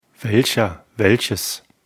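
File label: "welches", German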